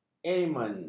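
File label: "elemen", Malay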